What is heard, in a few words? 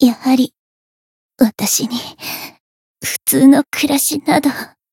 voice jp